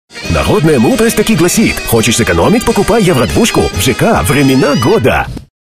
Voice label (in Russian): Parod Evrei